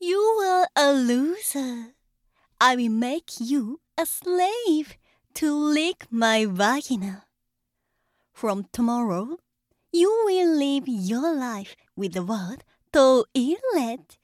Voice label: 罵倒誘惑 英語版; マゾハーレム

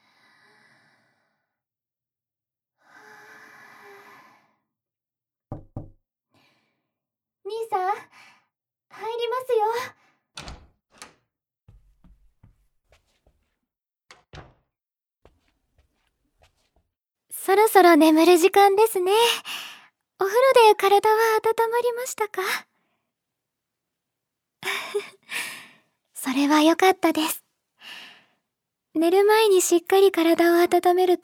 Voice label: 腕枕と子守唄でうとうと（ささやき編）

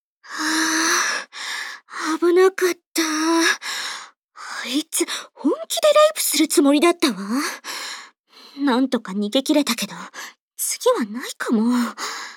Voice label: voice stem, ASMR; SEなし; 【オホ声/汚喘ぎ/NTR】「先輩、アタシと別れてください 」 彼女が弟のチャラ雄チ●ポに敗北してマゾメスチンハメおま●こ奴隷になった話

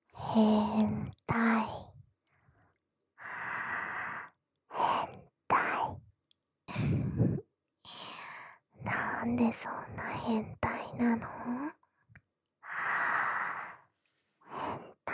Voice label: 囁き淫語連呼「変態」

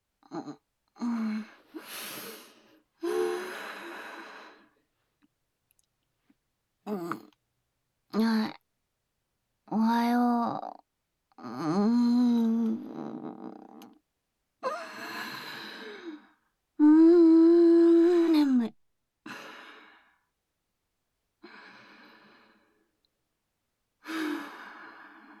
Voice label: 通話 モーニングコール; nonSE; 液体音無し

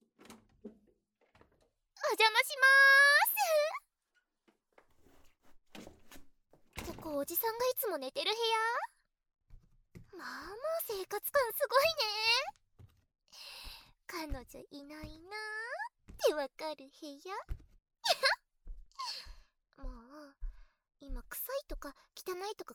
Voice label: 耳舐めカウントダウン; 耳元はぁれむ～ドMな性癖を隠してメスガキの奴隷になってみた～

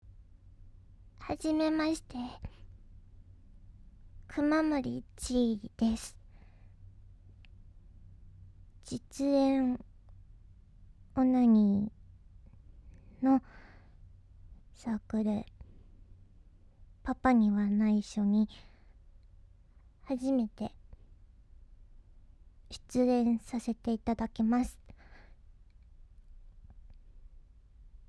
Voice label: バイノーラル; 実演; ロリ声女の子がしゃもじオナニー; 潮吹き; ぶっといしゃもじをアソコに入れて

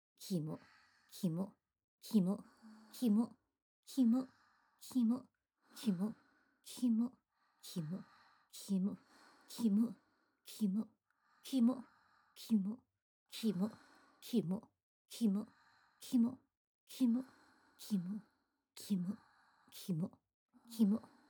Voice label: キモ連呼x吐息

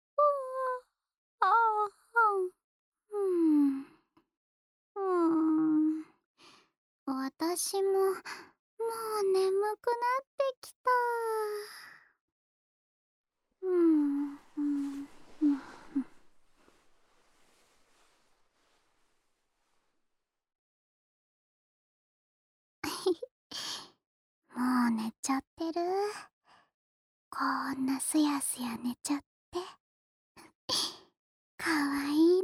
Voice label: 添い寝, ASMR; いっしょぐらし ～会社の上司彼女編～; 大久保瑠美

